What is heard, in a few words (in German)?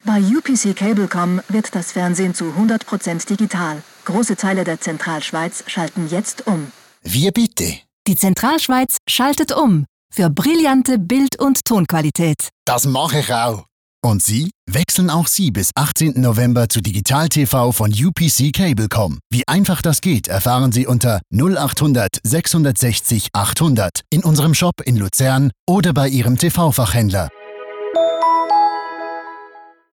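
Werbung Neutral; Fremdtake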